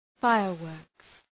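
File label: "fireworks", English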